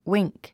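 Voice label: "wink", English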